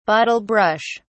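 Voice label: "bottle brush", British English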